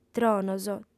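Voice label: "tronos", Sardinian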